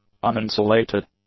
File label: "uninsulated", English; adjectives